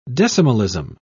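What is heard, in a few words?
"decimalism", English, British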